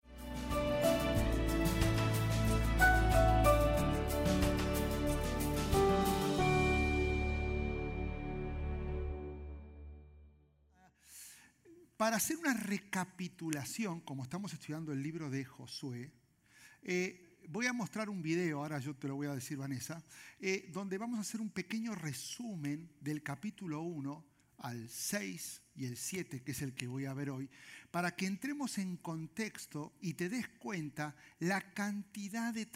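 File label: SERMON SPAN, Spanish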